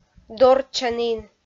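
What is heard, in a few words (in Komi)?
"дорччанін", Komi, female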